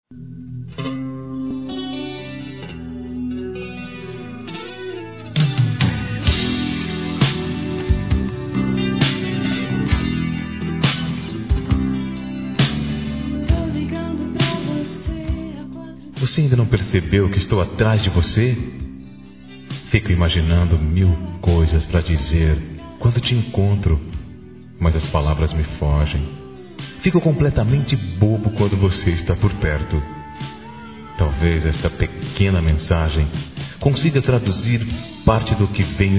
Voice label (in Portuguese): Paquera Masculino; Telemensagens; Conquistas Cantadas paqueras; Telemensagens 2017